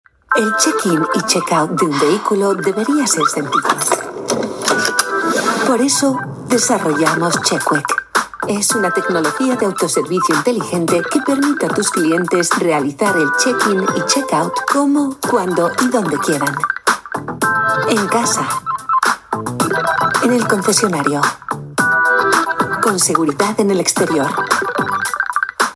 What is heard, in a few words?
European Spanish corporate